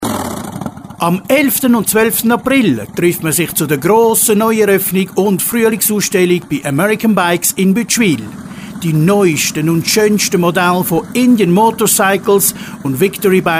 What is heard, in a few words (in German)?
Werbung; American Bikes